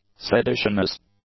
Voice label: "seditionous", English; adjectives